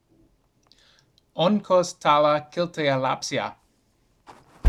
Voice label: Finnish phrase